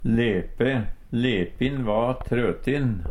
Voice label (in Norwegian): lepe, Numedalsmål; Substantiv hankjønn